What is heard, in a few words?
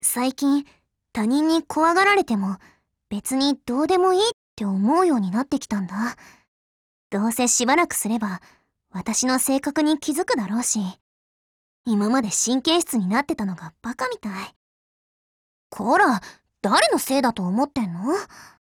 DIALOGUE WEDDING JP